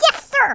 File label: "yes sir", Brooklyn; Worms Armageddon